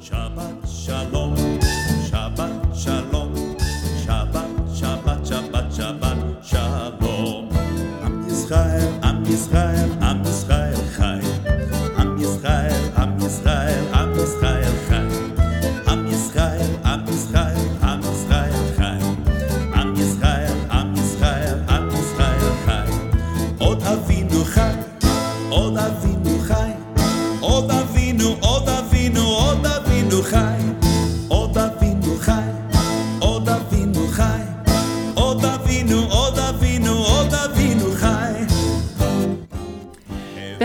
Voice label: Interview